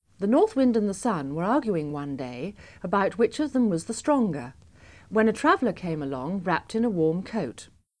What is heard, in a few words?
Unprocessed Female Speech